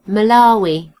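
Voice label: "malawi", British English; gcompris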